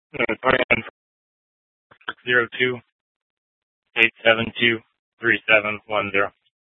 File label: Police Dispatch, scanner; Arizona State University - Tempe Campus; Channel 1